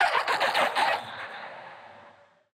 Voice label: vo, cs-cz; fiddlesticks